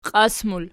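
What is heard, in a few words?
"qias" plural, Archi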